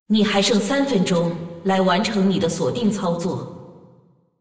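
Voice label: announcer female tournaments lockin 3min; zh cn; clash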